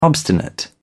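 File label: "obstinate", British English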